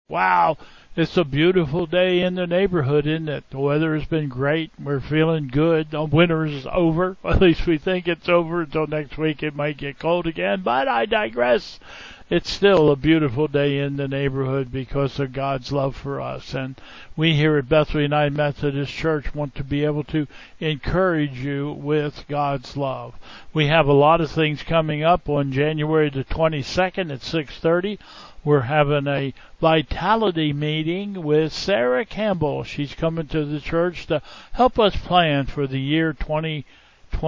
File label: Welcome and Announcements